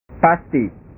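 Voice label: "pasti", Indonesian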